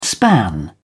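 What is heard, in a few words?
"span", English, British English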